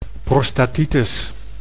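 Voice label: "prostatitis", Dutch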